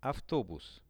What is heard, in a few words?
"avtobus", Russian; автобус; transport; Что это? Это автобус.; visual-dictionary